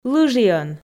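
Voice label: "лыжиӧн", Komi, female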